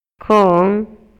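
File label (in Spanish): Maya, Yucatán, male; RELACIONES ESPACIALES; INALI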